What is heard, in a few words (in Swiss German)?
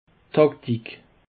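"taktik", Bas Rhin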